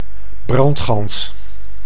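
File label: "brandgans", Dutch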